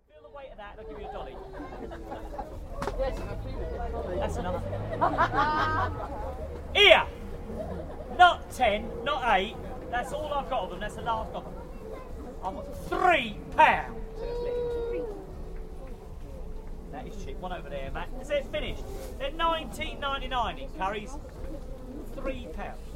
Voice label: east street market trader s funny banter

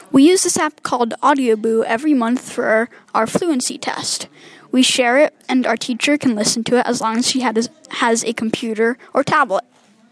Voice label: ad; techshowcase

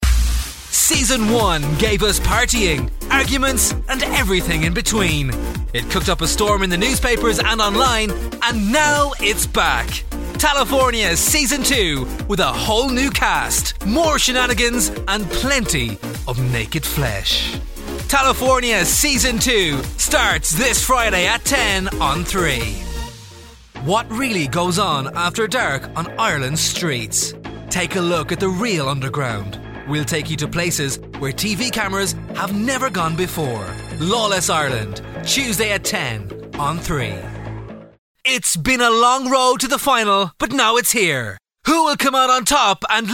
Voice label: tv promo

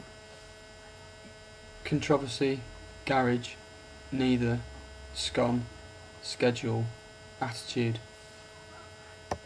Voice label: Blackpool male

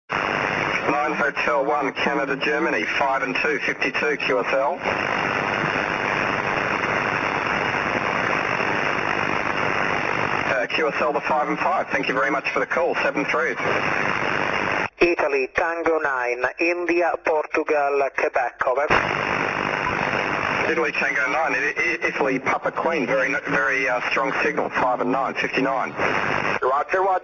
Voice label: 6m ssb

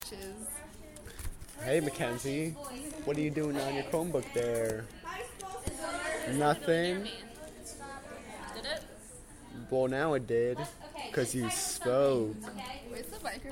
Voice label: mrs thrush s voice is squirrelly

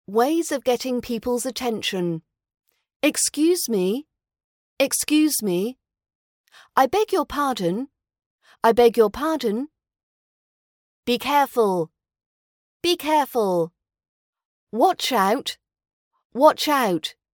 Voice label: getting peoples attention RP British accent

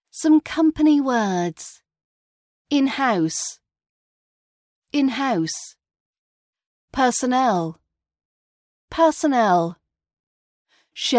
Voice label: company words RP British accent

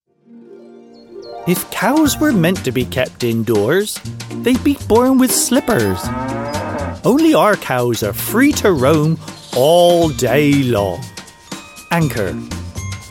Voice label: advert anchor

